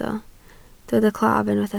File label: "claf", Welsh